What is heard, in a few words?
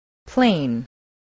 "plane", voice spelling